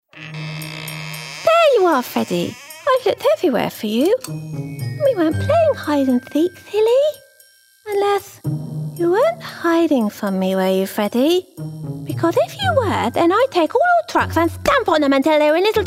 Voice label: Animation Girl 8 RP

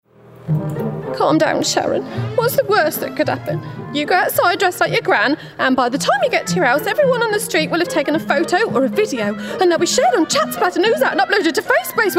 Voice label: Animation Teen Girl Birmingham

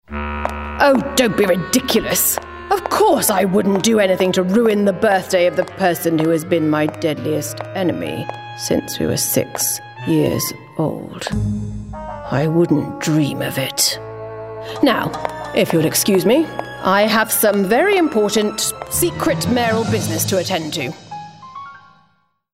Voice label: Animation Evil Mayor RP

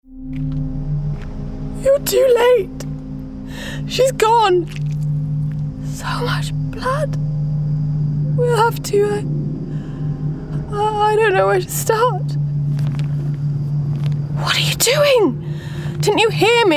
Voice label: Gaming Everywoman Natural

